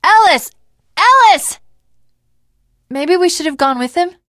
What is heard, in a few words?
teengirl, voice; Left 4 Dead 2; l4d1 finale